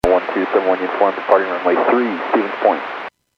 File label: Airports 122.7, Radio; KSTE